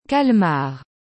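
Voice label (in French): "calmar", French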